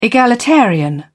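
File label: "egalitarian", British English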